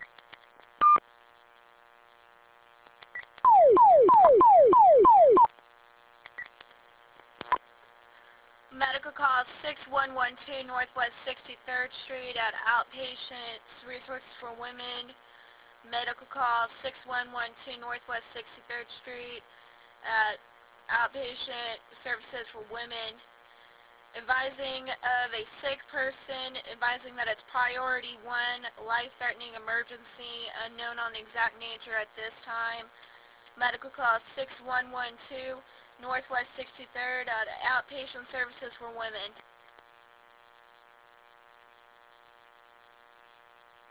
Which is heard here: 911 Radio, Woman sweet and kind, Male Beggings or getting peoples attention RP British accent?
911 Radio